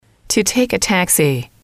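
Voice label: to take a taxi, English, female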